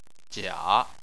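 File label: "jia", Chinese, third tone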